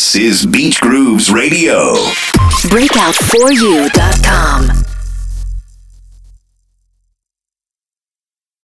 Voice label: summer imaging; breakout